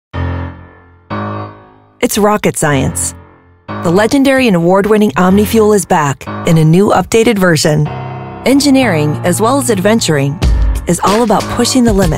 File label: english american corporate videos